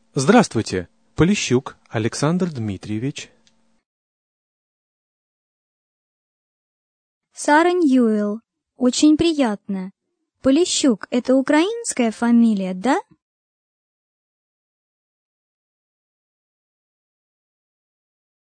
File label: dialog, russian